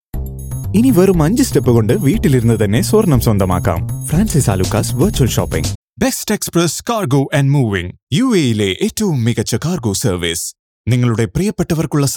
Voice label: Malayalam Indian